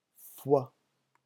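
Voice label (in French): "foie", French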